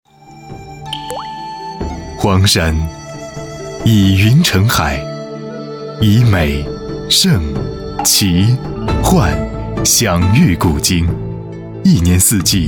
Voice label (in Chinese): 宣传片 旅游 沉稳; 黄山; 人文黄山